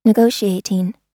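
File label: "negotiating", American English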